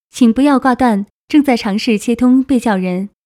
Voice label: Please Hold, mandarin chinese

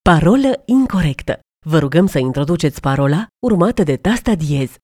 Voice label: Authentication Incorrect, romanian

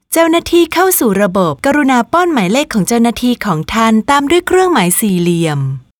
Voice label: Agent Login, thai

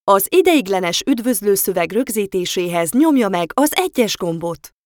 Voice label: hungarian Record Temporary Greeting

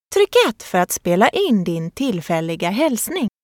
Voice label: swedish Record Temporary Greeting

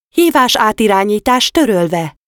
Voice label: Call Forward Cancelled, hungarian

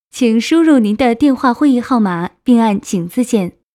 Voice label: mandarin chinese Enter Conference Number

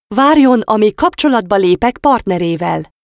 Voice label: hungarian Please Hold

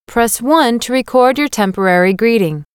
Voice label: american english Record Temporary Greeting

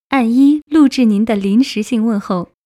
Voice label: Record Temporary Greeting, mandarin chinese

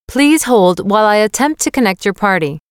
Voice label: american english Please Hold

